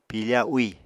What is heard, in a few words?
"piifa úì", Apatani